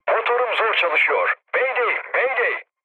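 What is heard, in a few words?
Turkish damaged engine, mood low, speech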